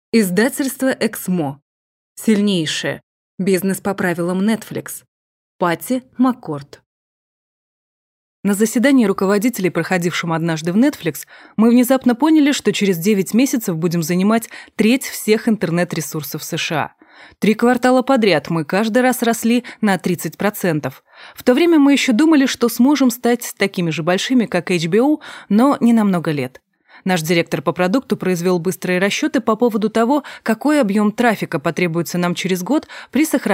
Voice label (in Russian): Diktor